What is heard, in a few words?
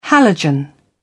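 "halogen", British English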